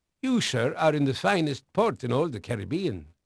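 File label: Dutch male, voice; Pirates Of The Caribbean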